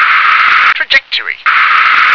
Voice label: "trajectory", English

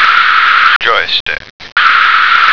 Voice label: "joystick", American

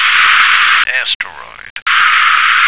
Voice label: "asteroid", American

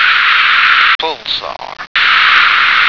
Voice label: "pulsar", American

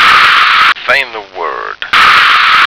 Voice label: "Find The Word", American

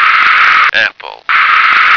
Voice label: "apple", American

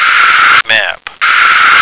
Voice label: "map", American

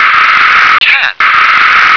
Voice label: "can", English

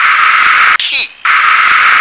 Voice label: "key", English

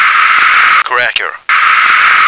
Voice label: "cracker", American

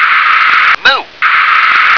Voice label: "mole", English

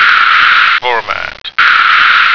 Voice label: "format", American

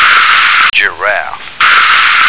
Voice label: "giraffe", American

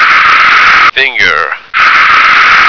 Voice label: "finger", American

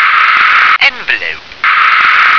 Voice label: "envelope", English

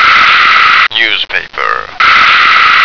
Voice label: "newspaper", American

